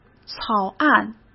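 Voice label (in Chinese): "草案", Mandarin Chinese; chinese-flashcards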